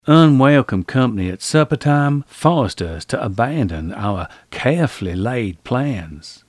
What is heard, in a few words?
deep south